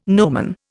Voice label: "nomen", Indian

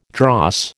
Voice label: "dross", American

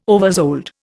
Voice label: "oversold", Indian

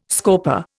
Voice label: "scorper", Indian

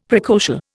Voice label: "precocial", Indian; Dictionary

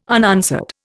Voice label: "unanswered", Indian

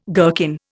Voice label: "gherkin", Indian

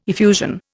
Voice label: "effusion", Indian

